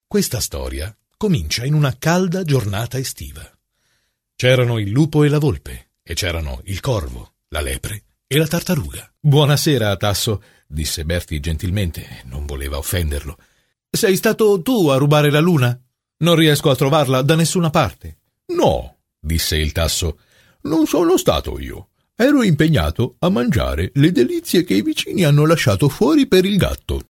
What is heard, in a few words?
italian male